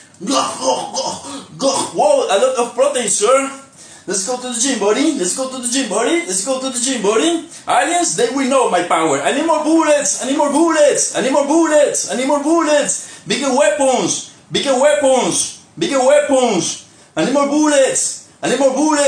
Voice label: brave french soldier requesting ammunition